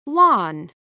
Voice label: "lan", Old Norse

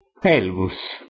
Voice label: "helvus", Latin

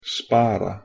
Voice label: "spara", Proto Germanic

